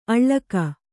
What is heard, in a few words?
"ಅಳ್ಳಕ", Kannada